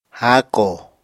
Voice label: "háakò", Apatani